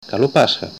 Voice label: happy easter, Greek; Καλό πάσχα